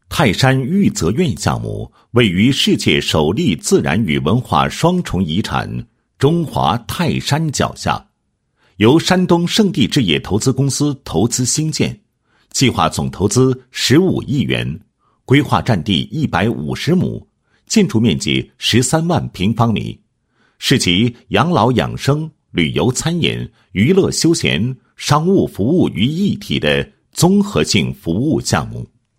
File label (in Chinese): 男 项目解说; 泰山御泽苑